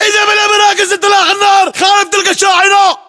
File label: order cover pickup, battle chatter, english